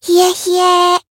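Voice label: attack, Japanese; yukino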